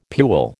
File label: "pule", American